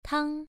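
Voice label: "tang" Mandarin Chinese, first tone, pronunciation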